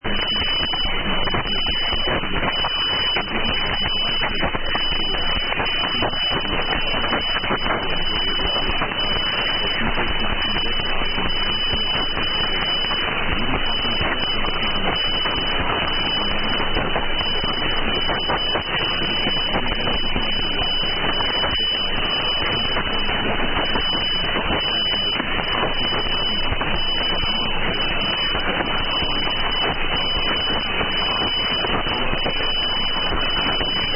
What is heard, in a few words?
Finland in German